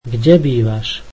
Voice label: slovak Where do you live